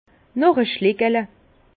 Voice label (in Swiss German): "schlikele", Bas Rhin; vin; encore une gorgée